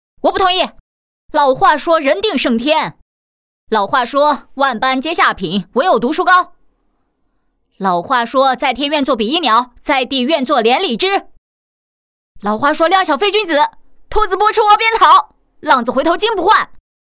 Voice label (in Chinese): 动漫角色